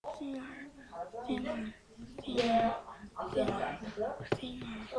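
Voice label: "singer", spoken word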